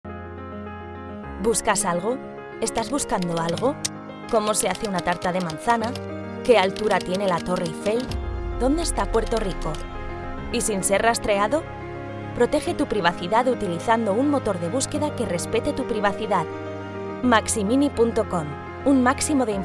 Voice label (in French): spot radio espagnol; maximini